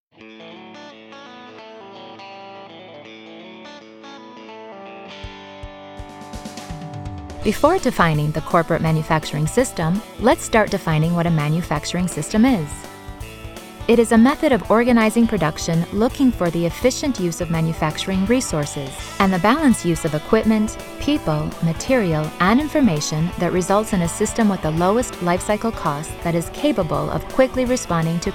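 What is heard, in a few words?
corporativo, American English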